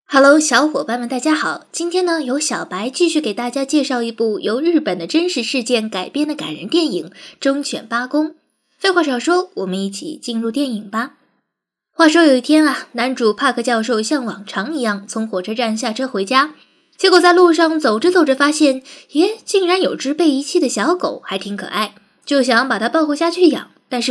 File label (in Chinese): 电影解说样音